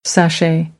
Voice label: "sashay", American English

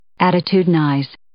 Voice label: "attitudinize", English

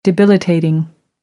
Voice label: "debilitating", American English; ə - ɪ

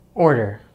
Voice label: "order", English; easypronunciation

